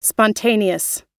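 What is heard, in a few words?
"spontaneous", US English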